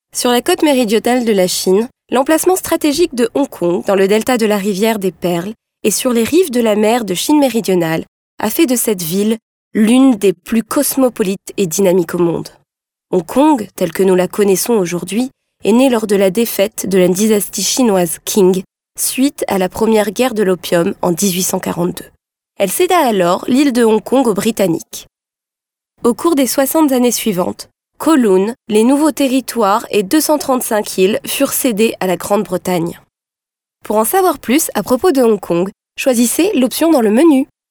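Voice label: French female corporate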